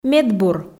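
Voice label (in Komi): "медбур", Komi, female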